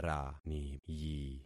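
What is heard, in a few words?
"raniyi", concatenated syllables; Affixing experiment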